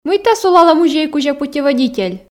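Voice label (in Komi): мыйта сулалӧ музей кузя путеводитель, Komi, female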